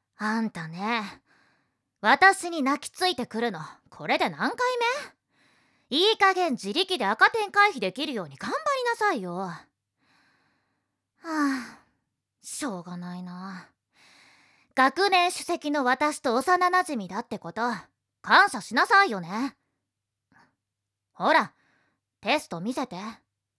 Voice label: girl